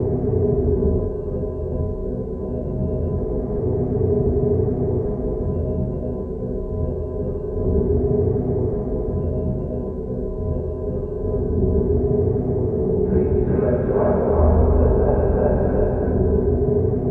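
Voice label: distant computer voice ambience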